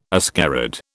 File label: "ascarid", British